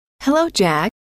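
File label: "hello", female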